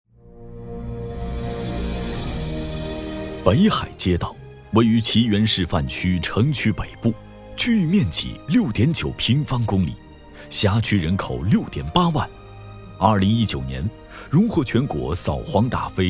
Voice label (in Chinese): 男 街道专题